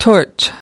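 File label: "torch", English word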